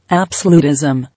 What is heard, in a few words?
"Absolutism", English